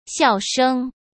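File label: "笑声", Mandarin Chinese; hsk; chinese-flashcards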